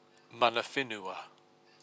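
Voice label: "mana whenua", Māori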